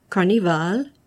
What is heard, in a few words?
"carnaval", French